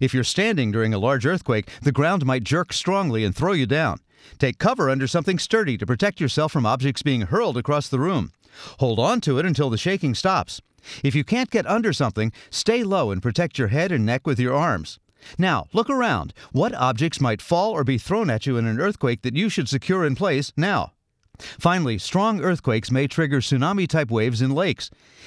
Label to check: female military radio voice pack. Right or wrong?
Wrong